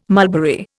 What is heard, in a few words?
"mulberry", Indian